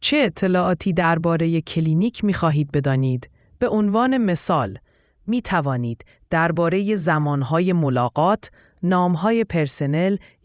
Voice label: persian